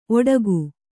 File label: "ಓಡಿಕೆ", Kannada